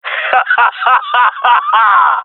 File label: round winning, jungle male; agents